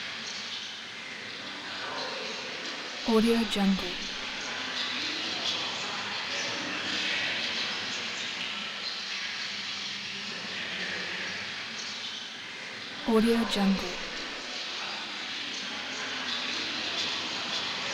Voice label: nightmare whispers several unintelligible